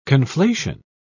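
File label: "conflation", British English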